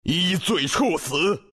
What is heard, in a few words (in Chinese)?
kill, zh; Dota 2